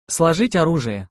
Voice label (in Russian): сложить оружие (složít’ orúžie), Russian; to fold [one’s] weapons